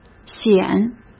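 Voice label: "显", Mandarin Chinese; hsk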